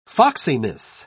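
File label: "foxiness", English, British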